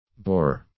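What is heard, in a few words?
"boar", English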